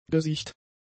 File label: "gesiecht", German; adjectives